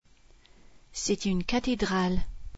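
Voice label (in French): "cathedrale", French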